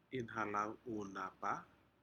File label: "inhalau u napa", Aneityum; fish